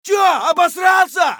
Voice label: scav battlecry; eft